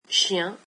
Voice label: "dog", french